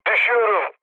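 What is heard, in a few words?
Turkish aircraft destroyed, mood low, speech; War Thunder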